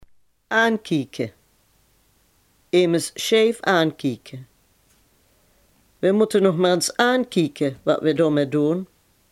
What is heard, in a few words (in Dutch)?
"aankijken", Limburg